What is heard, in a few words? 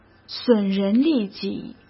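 "损人利己", Mandarin Chinese; chinese-flashcards; hsk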